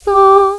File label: Solfege, Claire's Voice; Opcode Systems